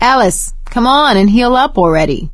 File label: suggest health, survivor voice; Left 4 Dead 2; producer; ellis